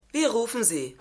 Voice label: Krankenschwester, Stimmproben; Seinfeld

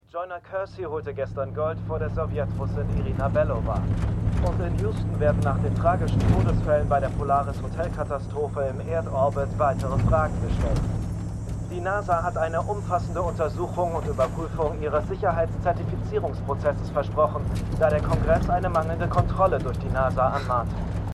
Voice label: Radio Nachrichtensprecher; For All Mankind

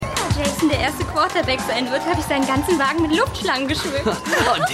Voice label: Maedchen; Keine Gnade Fuer Dad

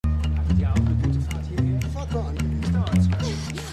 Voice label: Mann; Perfect Harmony

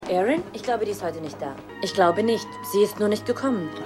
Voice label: Sekretaerin Mit Brille; Stimmproben; Was Frauen wollen

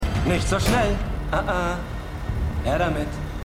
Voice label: Mann Waffe

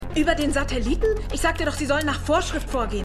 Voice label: Polizeitechnikerin; Stimmproben; Arrow

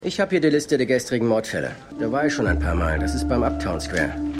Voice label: Reporter; Shining Girls

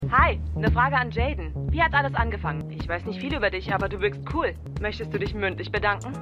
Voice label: Anruferin; Stimmproben; Everythings Trash

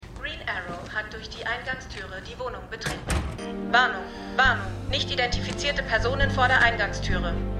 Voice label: Computerstimme; Stimmproben; Felicitys; Arrow

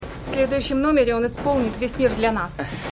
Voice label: Russisch; For All Mankind

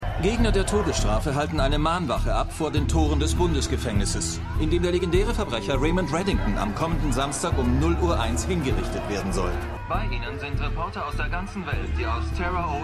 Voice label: Nachrichtensprecher

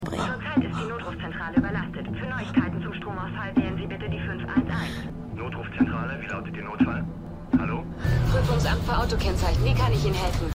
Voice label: Telefonstimmen

